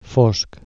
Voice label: dark, Catalan Consonants